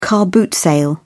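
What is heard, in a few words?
"car boot sale", English, British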